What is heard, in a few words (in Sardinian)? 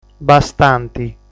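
"Bastanti", Sardinian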